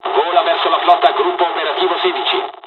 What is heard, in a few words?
flight, dialogs italian; War Thunder